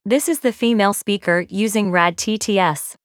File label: Female; TTS Overview; RadTTS